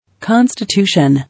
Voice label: "Constitution", English